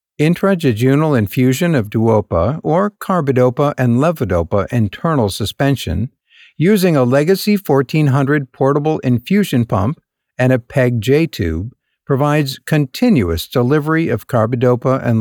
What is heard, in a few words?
Medical Narration